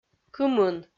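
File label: "кымын", Komi-Zyrian, female; kpv audio dict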